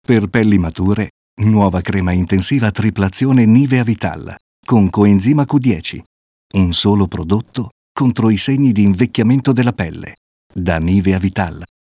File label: Spot; Nivea Vital